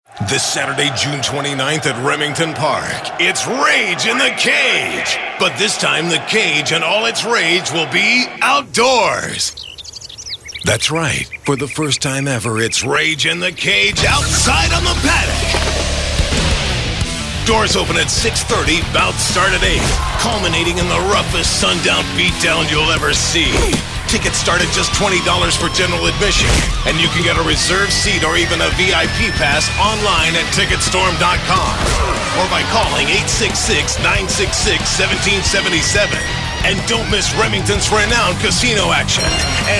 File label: Hard Sell